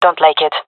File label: negative, gendarmerie fem epic; vo; agents